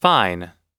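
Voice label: "fine", English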